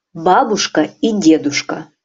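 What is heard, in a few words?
"babuska i deduska", Russian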